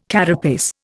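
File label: "carapace", Indian English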